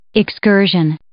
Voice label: "excursion", English